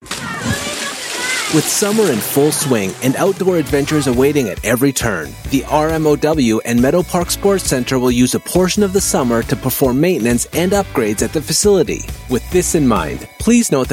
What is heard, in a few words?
US English corporate, male